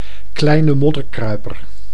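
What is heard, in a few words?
"kleine modderkruiper", Dutch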